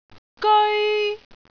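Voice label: "geoi1", Cantonese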